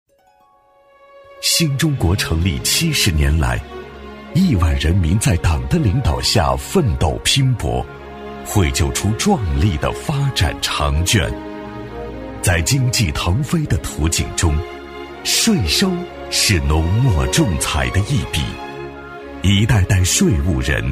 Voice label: 男, 稳重大气; 磁性质感; 大气浑厚

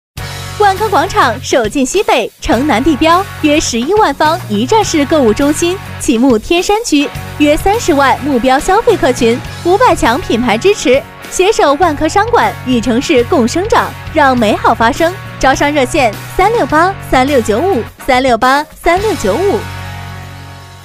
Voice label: 女-房产广告-高端大气; 轻松活泼; 万科; 百变女王

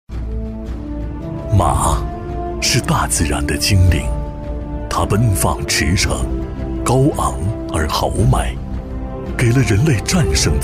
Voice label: 男 广告宣传片 马术广告片 深沉内敛; 男228-电视台声; 质感磁性

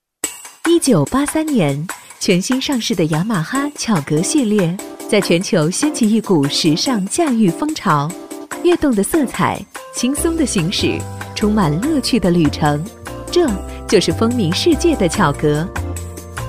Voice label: 女 品牌广告 品质清新

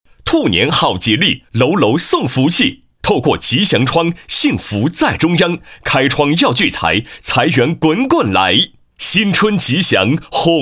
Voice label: 男 广告配音 喜庆吉祥; 兔年好吉利

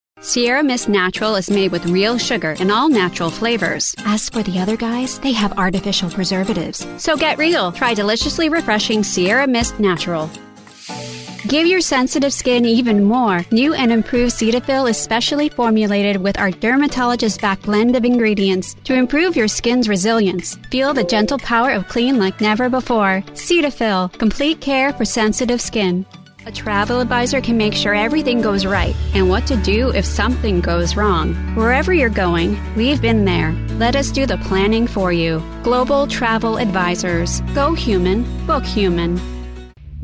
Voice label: 美式女声 商业广告

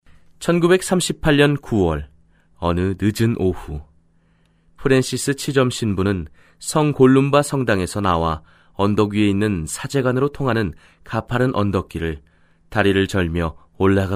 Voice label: 韩语男声 有声读物

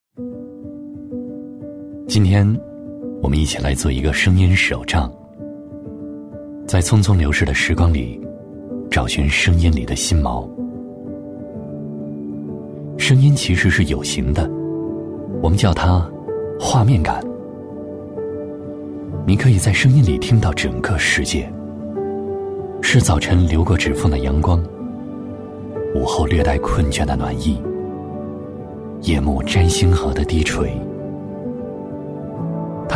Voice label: 男 旁白走心 温暖自然舒缓; 声音手账

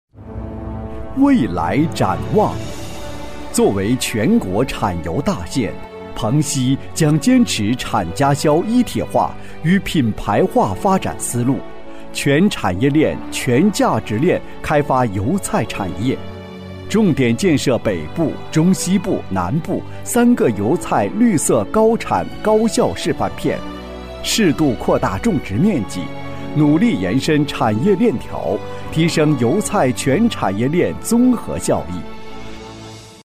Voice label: 男 政府专题 大气抒情; 成熟稳重; 大气浑厚; 蓬溪全产业链开发

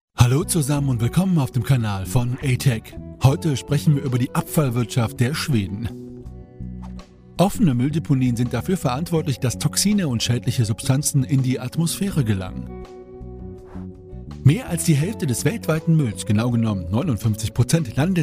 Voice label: 德语男声

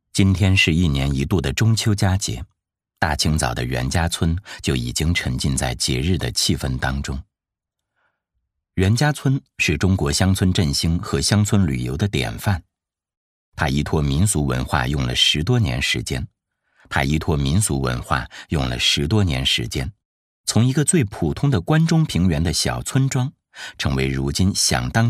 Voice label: 男 记录片 磁性讲解感; 磁性低沉; 质感磁性; 张飞

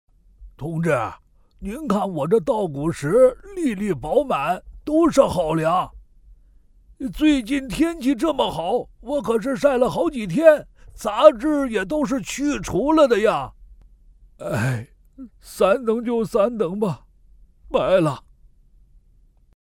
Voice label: 男 角色配音 农民

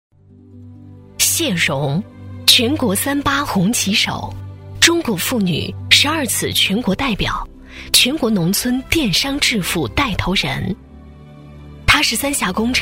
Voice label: 女-专题配音-磁性稳重; 沉稳大气

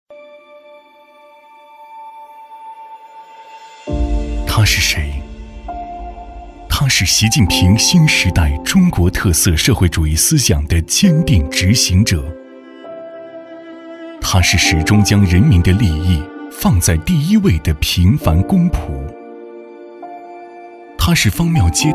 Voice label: 男-走心旁白-走心讲述; 他是谁

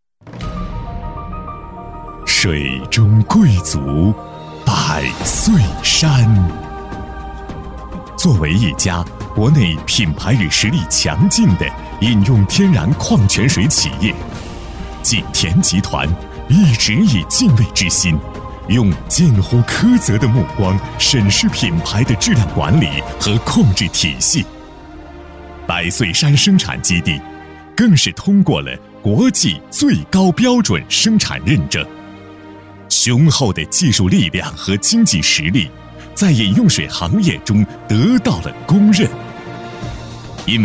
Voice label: 男, 宣传片, 集团宣传片, 大气磁性; 高端大气; 大气浑厚; 龙润集团宣传片

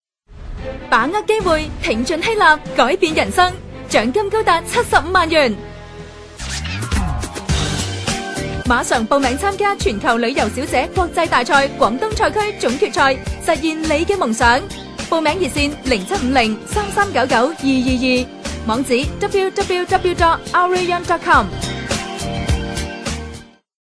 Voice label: 女 粤 广告; 知性

